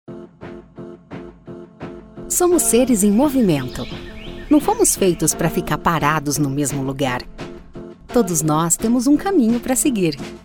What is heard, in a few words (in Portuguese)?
animation locutora